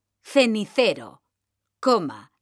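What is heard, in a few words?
"CENICERO COMA", Spanish, female; mekanta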